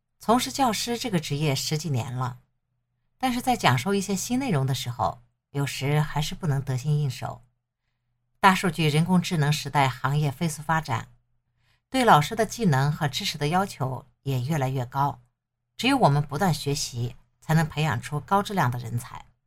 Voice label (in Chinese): 旁白 年轻教师; 从事教师这个职业十几年了